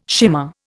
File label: "shimmer", Indian